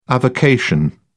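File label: "avocation", British English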